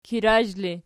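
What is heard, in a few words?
"kirazh" ergative, Archi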